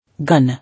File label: "Gun", English